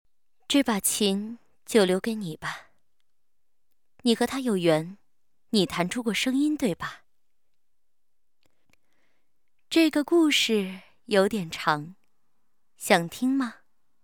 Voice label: 女 游戏动漫 女鬼 御姐音

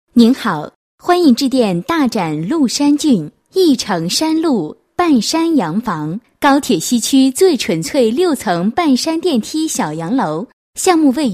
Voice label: 女 彩铃 欢迎致电; 大展·麓山郡

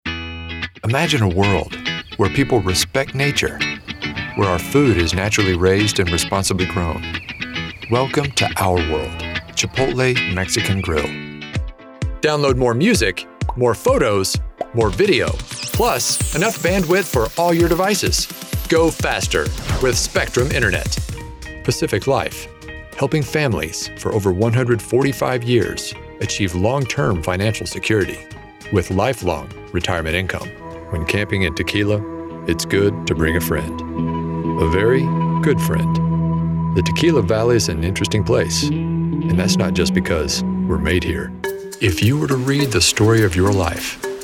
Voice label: 外籍英男 商业宣传片合辑

